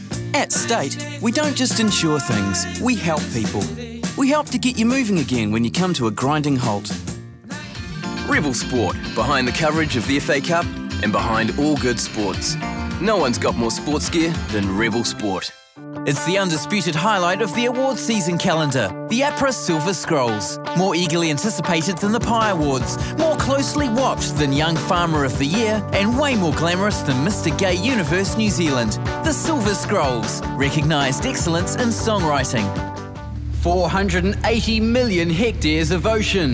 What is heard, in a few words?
Voice Actor; BigMouthVoices